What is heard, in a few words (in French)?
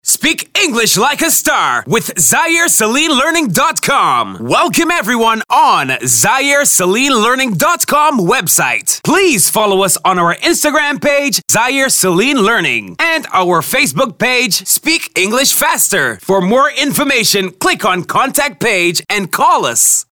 voix OFF